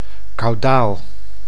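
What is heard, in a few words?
"caudaal", Dutch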